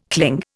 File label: "cling", Indian